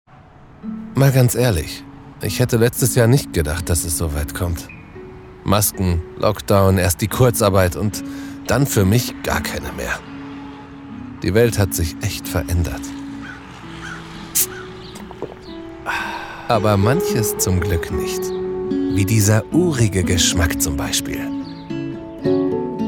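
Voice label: German, male, commercial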